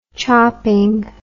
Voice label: "chopping", English